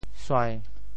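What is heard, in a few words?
"suai1", Teochew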